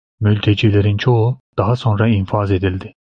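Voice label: "infaz", Turkish; mozilla voice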